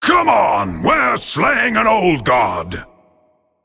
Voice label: Male Drakonid Play

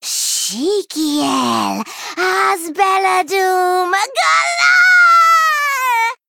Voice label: Female Gnome Play

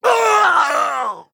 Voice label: Male Human Death

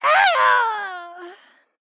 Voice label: Male Goblin Death

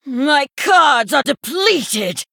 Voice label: Female Sanlayn Emote No Cards

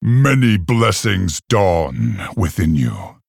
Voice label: Male Orc Well Played

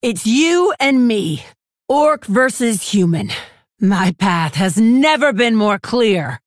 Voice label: Female Orc; Rokara; Scabbs

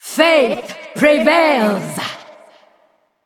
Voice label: Female Valkyrie

